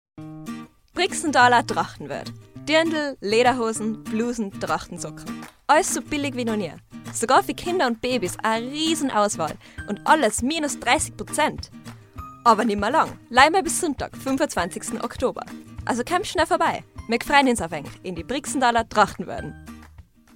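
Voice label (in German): österreichisch Werbung Trachtengeschäft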